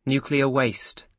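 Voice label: "nuclear waste", British English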